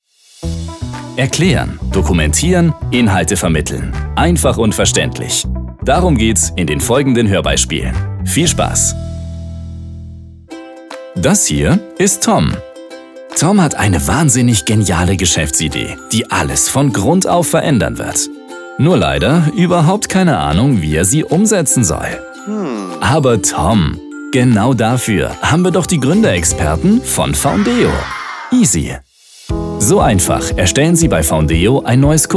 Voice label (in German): HP Informativ